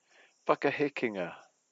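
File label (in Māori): "whakahekenga", Māori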